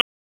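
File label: Raleigh Police Northeast District Tactical, p25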